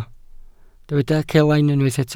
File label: "celain", Welsh